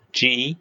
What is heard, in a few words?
short voyels arabic alphabet